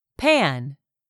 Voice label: "pan", English; 3000 words